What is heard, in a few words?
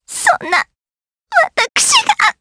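Vox Dead, Japanese; Aisha; kingsraid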